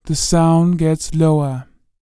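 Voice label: female spoken, R&B Dance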